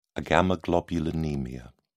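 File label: "agammaglobulinemia", British English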